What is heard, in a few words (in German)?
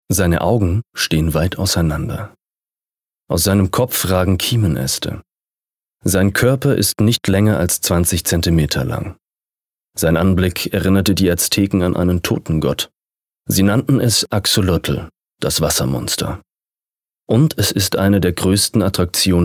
Dokumentarischer Text; LOFT VOICES